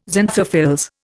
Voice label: "xanthophylls", Indian